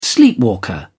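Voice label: "sleepwalker", British English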